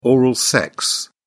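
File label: "oral sex", British English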